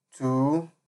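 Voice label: "too", Nigerian Pidgin, male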